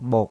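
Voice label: "muk6", Cantonese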